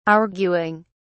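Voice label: "arguing", British English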